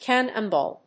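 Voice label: "cannonball", English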